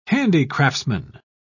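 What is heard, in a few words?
"handicraftsman", British English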